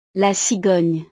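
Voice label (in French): "la cigogne", French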